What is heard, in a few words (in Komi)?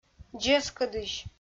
"дзескыдысь", Komi